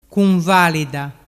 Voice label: "cunvalida", dialettu

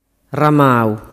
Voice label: "ramau", dialettu

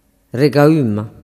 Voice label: "regaumma", dialettu

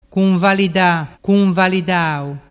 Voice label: "cunvalidadau", dialettu

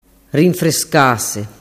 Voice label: "rinfrescase", dialettu

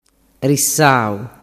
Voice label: "rissau", dialettu

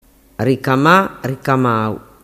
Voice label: "ricamaau", dialettu

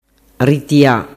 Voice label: "ritia", dialettu

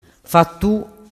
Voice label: "fattu", dialettu

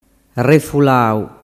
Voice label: "refulau", dialettu

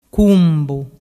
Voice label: "cumbu", dialettu

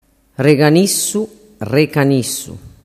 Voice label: "reganissuvedirecanissu", dialettu

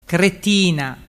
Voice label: "cretina", dialettu

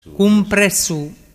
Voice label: "cumpressu", dialettu

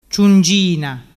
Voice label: "ciungina", dialettu

